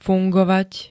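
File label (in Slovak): "fungovať", Slovak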